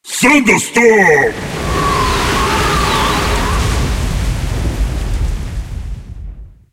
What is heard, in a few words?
skill thunderstorm enemy en